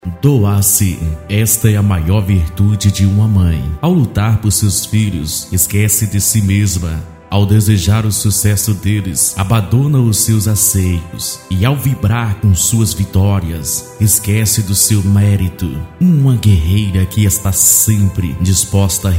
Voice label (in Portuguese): vinheta vigília; Assembleia de Deus